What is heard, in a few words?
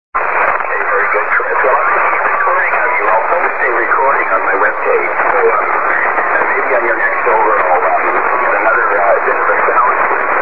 40m ssb; This recording of my signal was made by Chris during our QSO above.